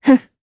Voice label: Happy, jp; heroes; Tanya; kingsraid